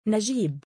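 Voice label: "najiib", Arabic, male; given name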